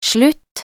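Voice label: "slutt", Norwegian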